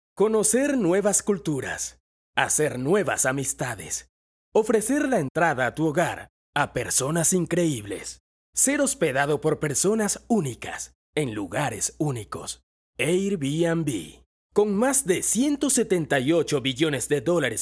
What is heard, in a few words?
Male Voice; quevoces